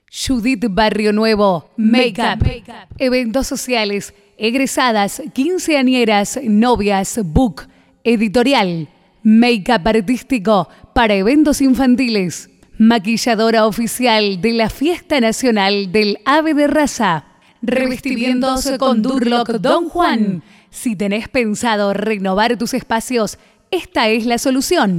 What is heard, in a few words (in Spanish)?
Calida Estilo Comercial, voces femeninas